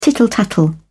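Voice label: "tittle tattle", British English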